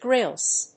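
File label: "grilse", English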